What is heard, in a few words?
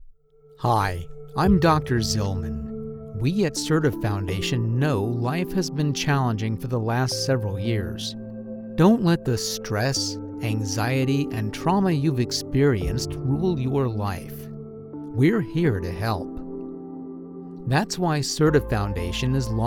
Bumper, Male Voice Over; Sirta Foundation